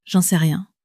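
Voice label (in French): "j'en sais rien", French, female; flashcards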